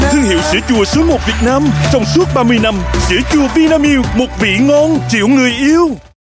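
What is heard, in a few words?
Vietnamese Voice Sample Male South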